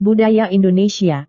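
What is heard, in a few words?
"budaya indonesia", Indonesian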